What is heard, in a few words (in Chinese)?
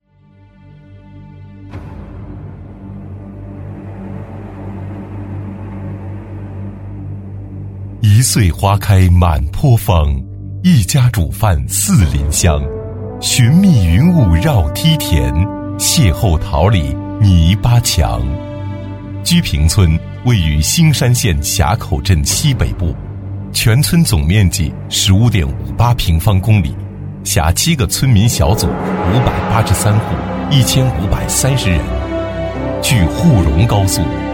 男 汇报宣传片 大气厚重; 大气舒缓; 成熟厚重; 福满踞平村